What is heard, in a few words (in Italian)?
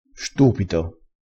"stupito", crespinese